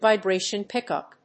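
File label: "vibration pick-up", English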